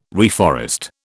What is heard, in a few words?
"reforest", British